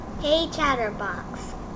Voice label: hey chatterbox, en-us